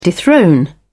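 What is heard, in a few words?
"dethrone", British English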